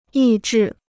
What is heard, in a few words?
"抑制", Chinese